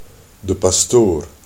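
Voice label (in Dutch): "pastoor", Dutch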